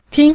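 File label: "ting", Mandarin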